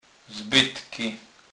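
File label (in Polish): "zbytky", Kurpie dialect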